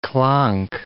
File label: "clonk", English